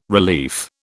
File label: "relief", British